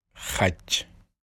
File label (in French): "Chien" Wolof